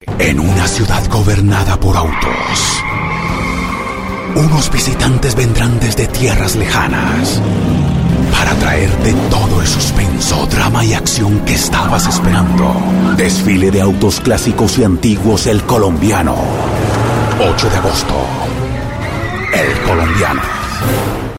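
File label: Latin American Spanish Commercial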